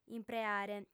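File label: "impreare", female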